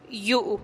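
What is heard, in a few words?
"yu'u", casa, Teotitlán del Valle Zapotec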